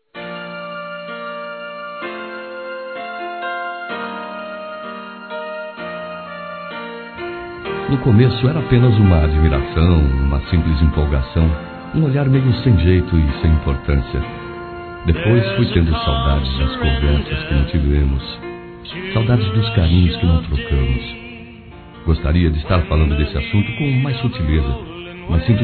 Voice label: Paquera Convite para Sair Masculino; Telemensagens; Conquistas Cantadas paqueras; Telemensagens 2017

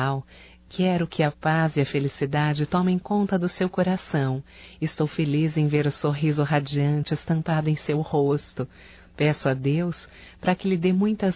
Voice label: PARABENS PELO CASAMENTO DO IRMãO, fem; Casamentos; Telemensagens 2017

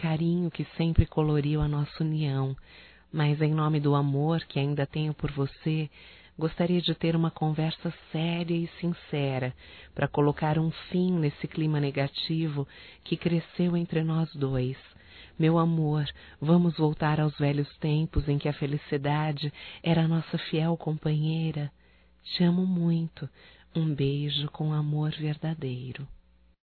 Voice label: RELACIONAMENTO ABALADO(F), Românticas fem

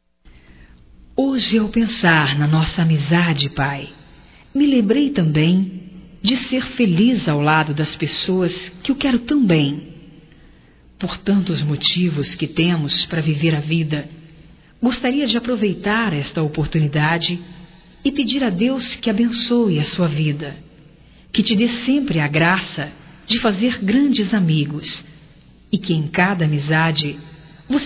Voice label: Homenagem ao Pai Feminino, Telemensagens; Homenagens; Telemensagens 2017

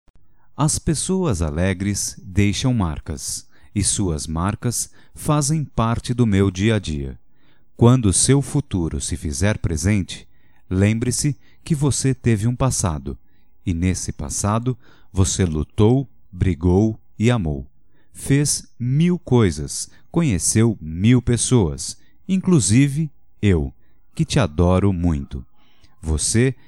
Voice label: AMIZADE - M

